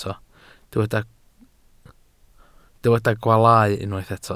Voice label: "gwaalau", welsh

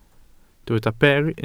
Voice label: "ber", Welsh

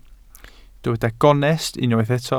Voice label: "gonest", Welsh

